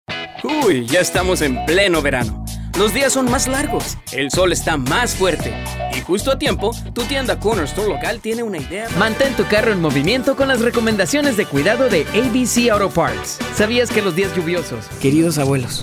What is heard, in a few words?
spanish males